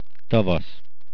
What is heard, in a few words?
Spring, hungarian